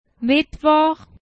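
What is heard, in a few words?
"Mittwoch", German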